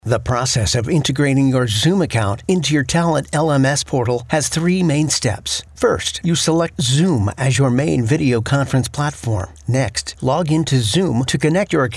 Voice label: E-Learning

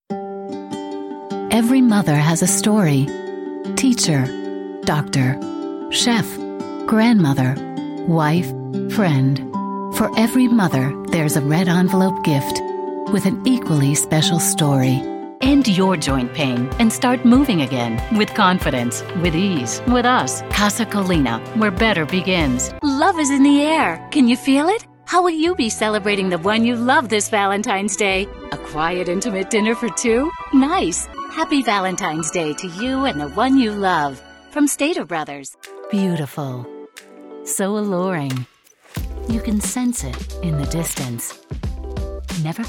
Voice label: Commercial

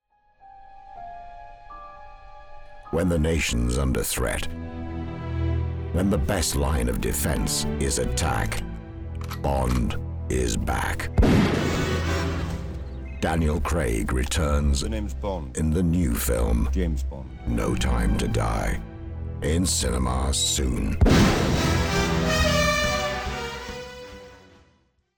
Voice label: Promo; Bond

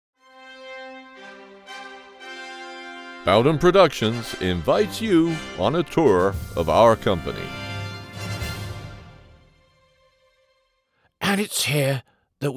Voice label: Character reel

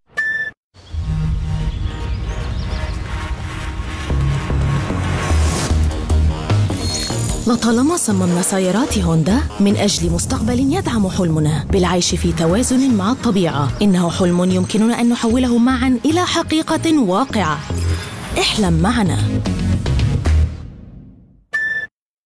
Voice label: Official Arabic Ad; Honda

